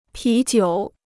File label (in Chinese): "啤酒", Chinese